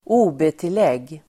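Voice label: "OB-tillägg", Swedish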